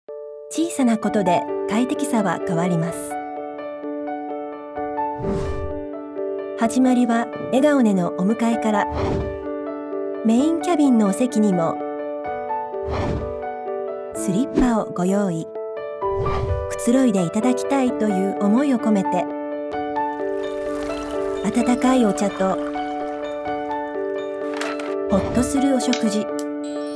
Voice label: 日語女聲; 航空公司Delta

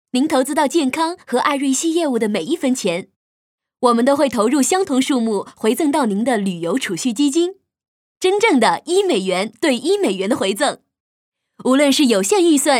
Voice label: 普通話女聲 Corporate Happy

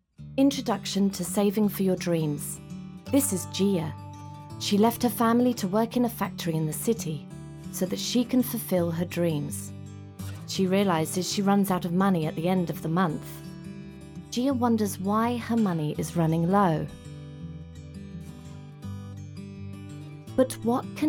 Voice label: 英語女聲 English; BankSaving

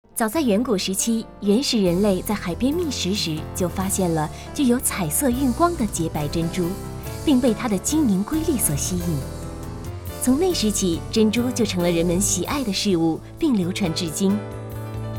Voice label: 國語女聲 旁白

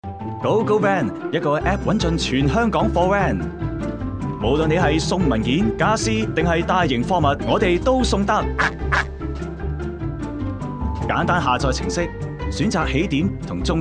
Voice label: 粵語男聲; GoGo Van

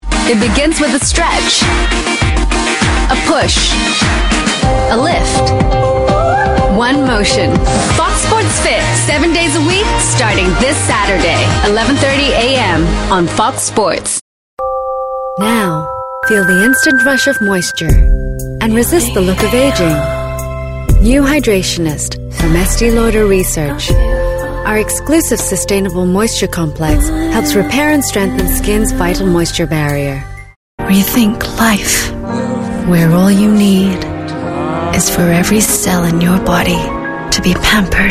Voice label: 英語女聲

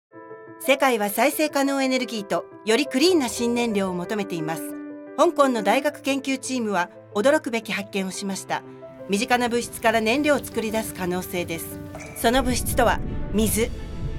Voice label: 日語女聲 Water; BrandHK

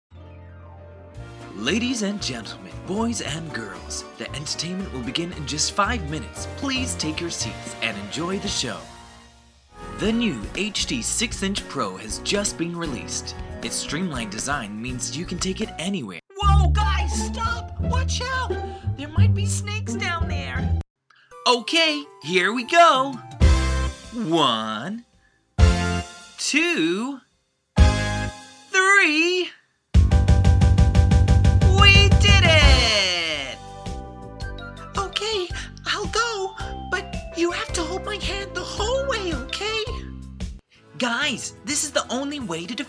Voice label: 英語男聲

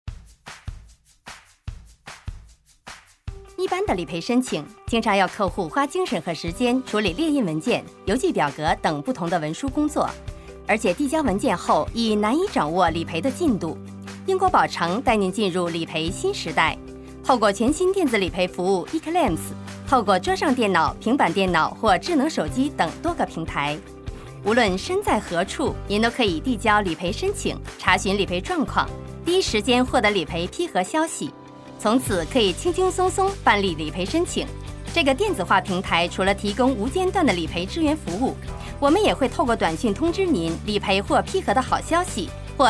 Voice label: 普通話女聲; Prudential

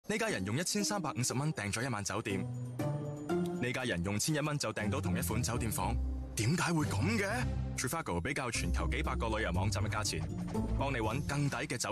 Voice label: 粵語男聲; Trivago

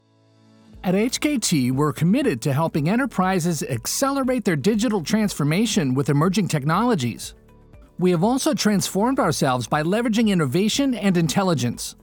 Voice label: 英語男聲; HKT

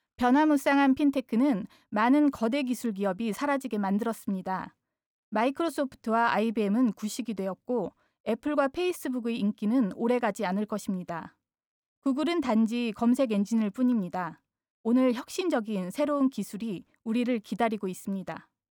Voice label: 韓語女聲 normal

